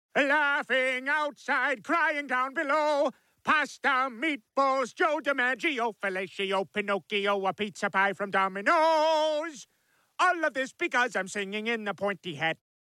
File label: Italian clown